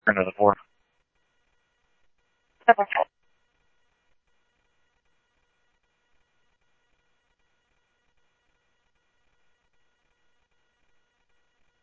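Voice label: police radio dispatch, ScottsdalePolice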